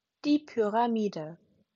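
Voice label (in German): "pyramide", German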